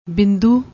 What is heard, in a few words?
"bindoo", Punjabi